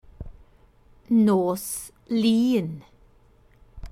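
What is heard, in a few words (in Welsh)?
"nos lun", Welsh; Monday evening/night; Nights of the Week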